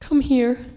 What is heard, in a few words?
sad